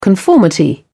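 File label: "conformity", British English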